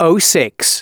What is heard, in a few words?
announcements male